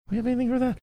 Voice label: quietly saying something